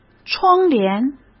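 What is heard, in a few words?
"窗帘", Mandarin Chinese; chinese-flashcards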